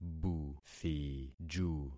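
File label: "buthiju", concatenated syllables; Affixing experiment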